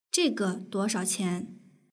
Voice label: "duoshaoqian", Chinese

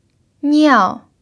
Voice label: "niao4", chinese pinyin

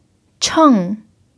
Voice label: "cheng4", Chinese pinyin

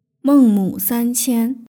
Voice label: "mengmusanqian" Chinese

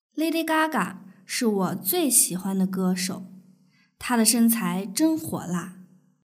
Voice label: dialogue, Chinese; bodyfigure

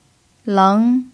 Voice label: "leng2", chinese pinyin

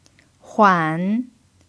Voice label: "huan3", chinese pinyin

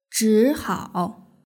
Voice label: "zhihao", Chinese

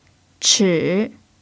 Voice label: "chi3", chinese pinyin

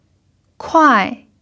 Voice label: "kuai4", chinese pinyin

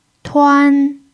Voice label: "tuan1", Chinese pinyin

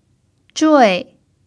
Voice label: "zhui4", Chinese pinyin

